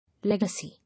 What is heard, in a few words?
"Legacy", English